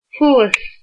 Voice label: above, irish